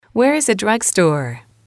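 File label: where is a drug store, English, female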